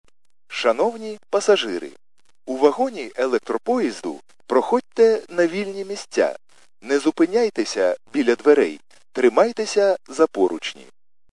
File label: khs st male, subway station